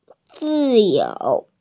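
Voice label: "zi4you2", Mandarin Chinese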